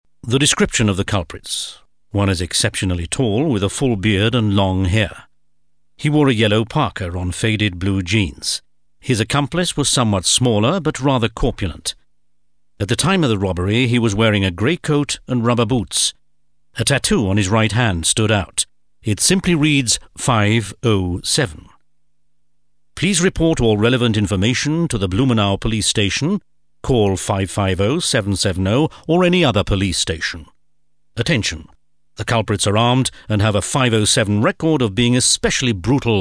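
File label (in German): UK English Kommentar Neutral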